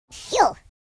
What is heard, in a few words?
relief, speech, Horror